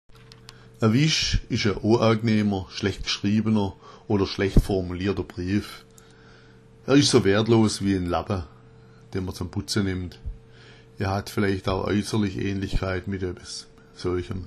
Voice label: wisch, schwaebisch

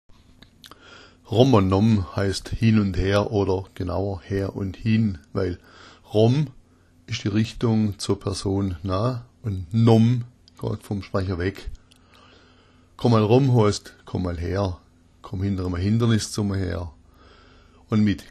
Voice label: "romm", schwaebisch

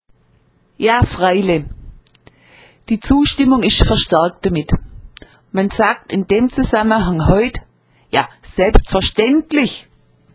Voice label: "ja freile", schwaebisch

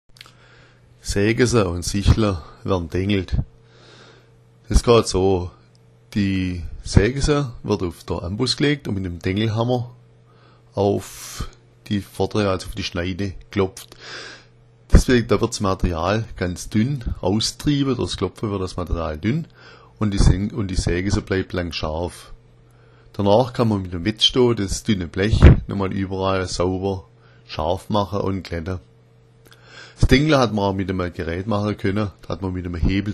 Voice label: dengla, schwaebisch

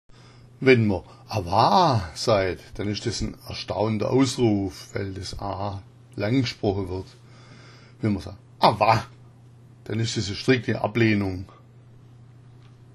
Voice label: awa, schwaebisch

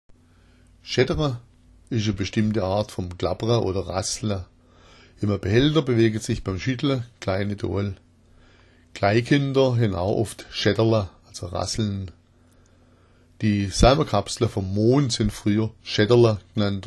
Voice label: "schaettera", schwaebisch

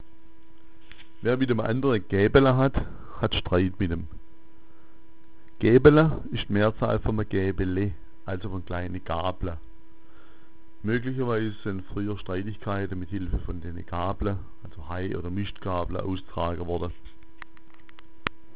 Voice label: gaebala, schwaebisch

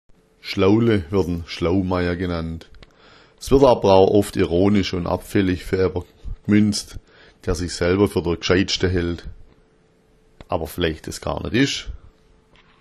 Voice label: schlaule, schwaebisch

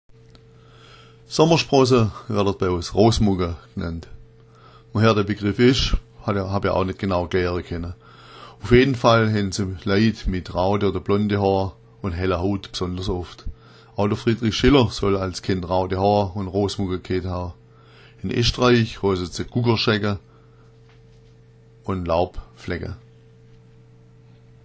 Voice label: rossmucka, schwaebisch

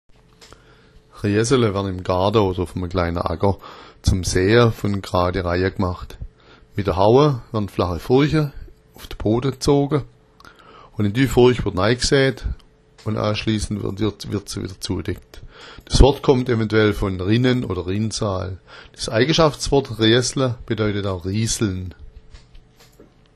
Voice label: "reasala", schwaebisch

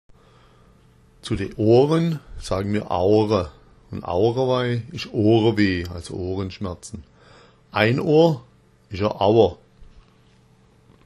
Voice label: aora, schwaebisch

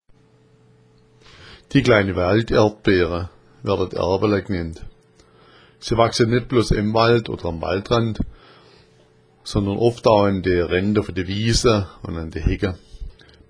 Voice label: "erbala", schwaebisch

